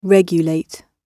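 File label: "regulate", British English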